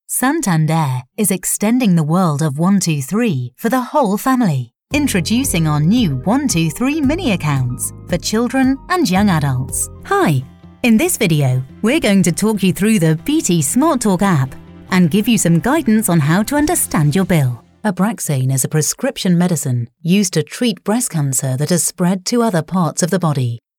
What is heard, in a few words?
Corporate Showreel